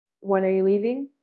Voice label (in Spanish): Voice Acting es F0